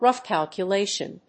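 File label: "rough calculation", English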